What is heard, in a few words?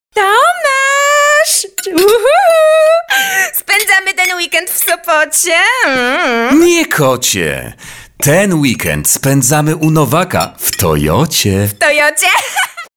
POLISH FEMALE